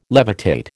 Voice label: "levitate", American